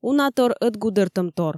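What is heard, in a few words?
"унатор ӧтгудыртӧмтор" Komi, female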